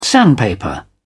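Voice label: "sandpaper", British English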